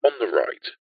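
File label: technology sat nav voice male british says on the right; uk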